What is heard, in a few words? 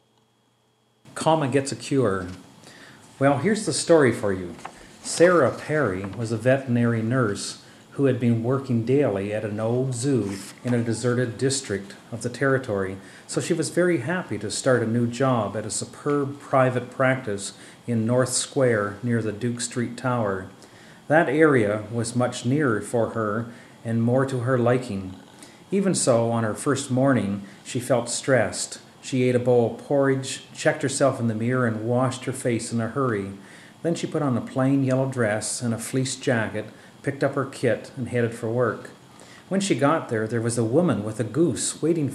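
Canada Ontario Common, dialect samples, canada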